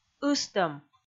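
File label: "ыстӧм", Komi, female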